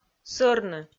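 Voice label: "сӧрны", Komi, female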